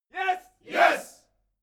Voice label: military shout english "yes"

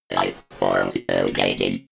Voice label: robot voice lifeforms located fx

